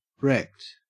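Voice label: "wrecked", Australian English; pronunciations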